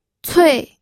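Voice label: "cui", Mandarin Chinese, fourth tone; pinyin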